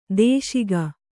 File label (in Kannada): "ದೂಷಿಕೆ", Kannada